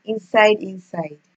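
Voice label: "inside inside", Nigerian Pidgin, female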